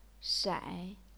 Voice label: "shai3", chinese pinyin